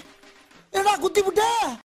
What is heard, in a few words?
Comedy Dialogue; Vadivelu